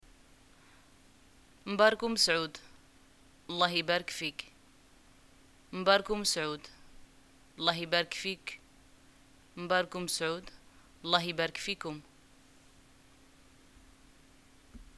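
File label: Moroccan greeting new things, arabic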